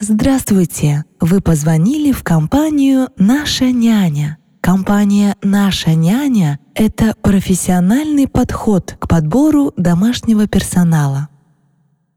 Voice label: russian ivr, comédienne de doublage